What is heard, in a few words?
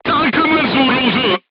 voice message player tank hit, crew tanks turkish